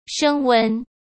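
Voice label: "升温", Mandarin Chinese; hsk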